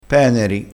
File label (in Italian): "peneri", crespinese, vocabolario dialettale